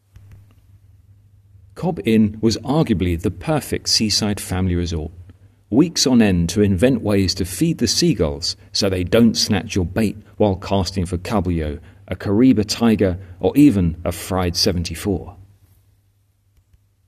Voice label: voiceover